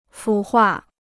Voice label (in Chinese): "腐化", Chinese